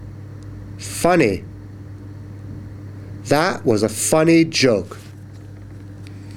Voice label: funny